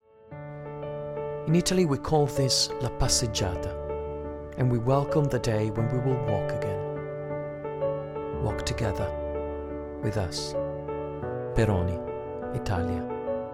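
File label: Italian, Accented English Commercial; voice demos; GoLocalise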